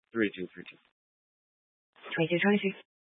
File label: Police 1 Dispatch